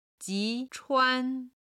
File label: "ji chuan", chinese